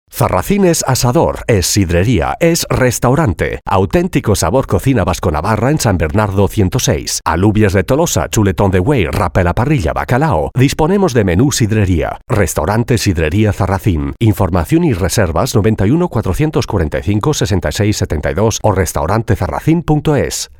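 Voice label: Spanish, advertising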